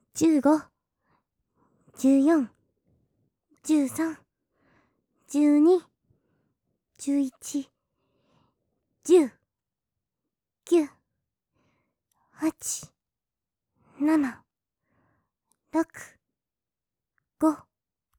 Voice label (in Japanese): カウントダウンボイス, ステレオ; カウント有り